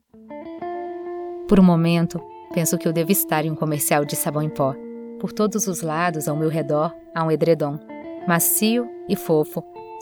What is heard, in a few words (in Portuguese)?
Locutora Demonstrativo de Voz AudioBook